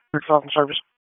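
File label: EMS DISP, radio; wake; p25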